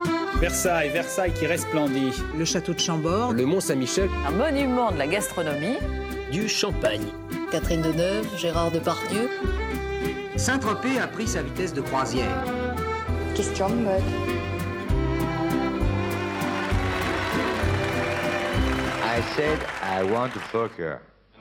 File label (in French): French Style, voix off comédienne; Bande Annonce INA